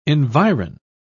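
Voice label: "environ", English, British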